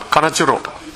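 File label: "kanachoro", Minamiyamagata dialect Japanese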